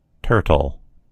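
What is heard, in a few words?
"turtle", American English; gcompris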